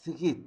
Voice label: "México", Zapoteco Magdalena Ocotlan; Topónimos; INALI; Haspelmath

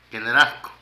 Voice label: Comida, Zapoteco de Petapa; Vocabulary

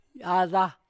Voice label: "pájaro", Cuicateco; INAH

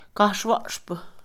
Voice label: predicar, Mixe, Mujer; Religión; INALI

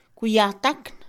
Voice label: cancha, Mixe, Mujer; Casa; INALI

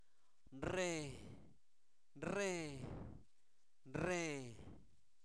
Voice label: Zapoteco Albarradas, santo domingo albarradas; INALI; humano; sangre

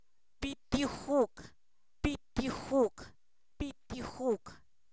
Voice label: bule, Zapoteco, san miguel albarradas; objetos inanimados

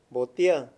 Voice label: "botella", Mayo; Mundo moderno

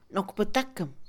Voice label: Mixe, Mujer; suponer; INALI

